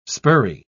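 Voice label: "spurry", English, British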